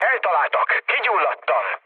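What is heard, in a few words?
Hungarian on fire mood low, speech; War Thunder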